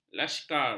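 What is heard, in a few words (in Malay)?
"lasykar", Malay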